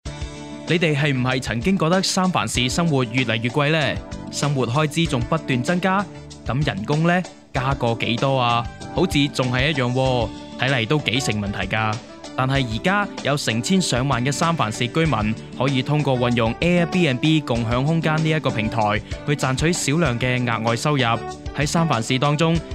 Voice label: Radio Cantonese